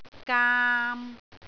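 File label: "gaam3", Cantonese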